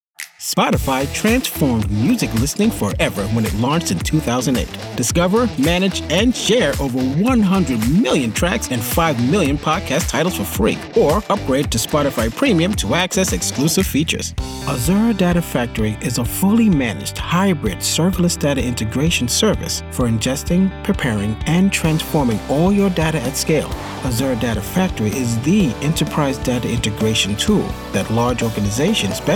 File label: Narration